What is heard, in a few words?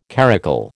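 "caracul", American English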